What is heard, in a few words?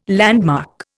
"landmark", Indian